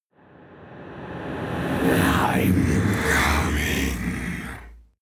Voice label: demon dark voice reverse i am coming

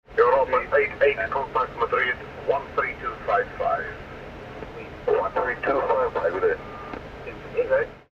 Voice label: aircraft radio message

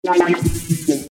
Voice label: alien speech

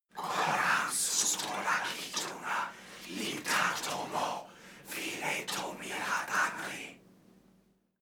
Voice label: evoke whisper

